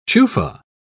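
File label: "chufa", British English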